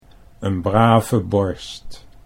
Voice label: "n brave borst", Dutch